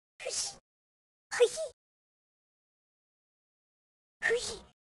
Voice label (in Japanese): シアンソン Voice